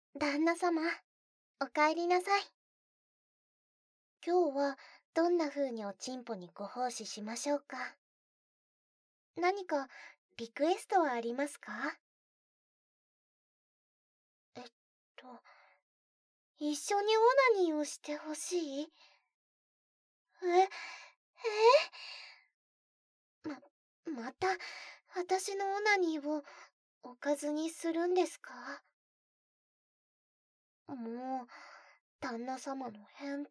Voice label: バイノーラルオナニーサポート音声 右耳; ＳＥ無し

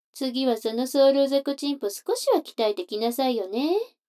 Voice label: 女の子台詞

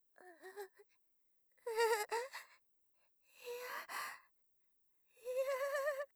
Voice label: 弱弱しい

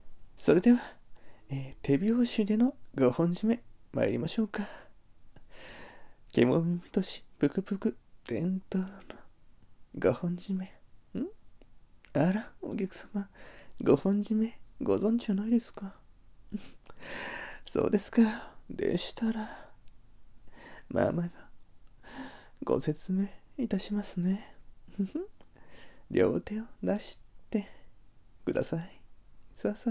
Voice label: 解説：手拍子5本締め ゆっくり説明版

